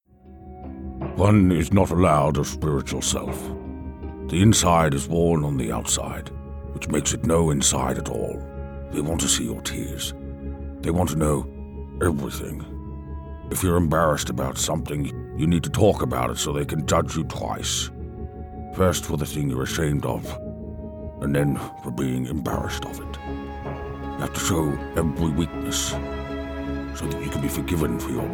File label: CINEMATIC SPEECH; RICHARD NIXON